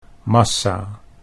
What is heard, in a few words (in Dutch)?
"massa", Dutch